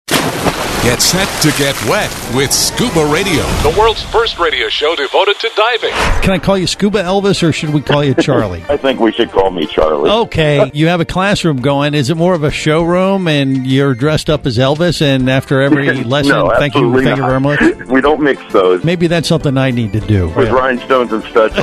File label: Promo